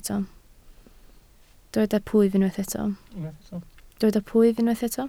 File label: "plwyf", Welsh